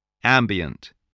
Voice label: "ambient", spelling